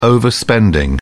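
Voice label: "overspending", English, British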